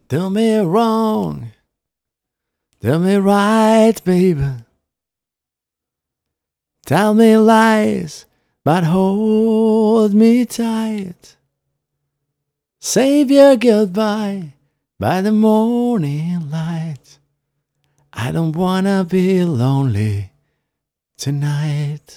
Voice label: Vox Test Eq